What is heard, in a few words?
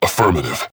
Emote Yes; Androxus; VGS; paladins